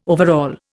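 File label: "overall", Indian English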